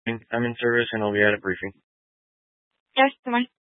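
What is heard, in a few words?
Sheriff Dispatch, Scanner audio recording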